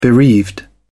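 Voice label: "bereaved", English, British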